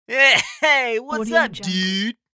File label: surfer hey whats up dude